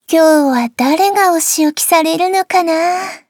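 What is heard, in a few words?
start, Japanese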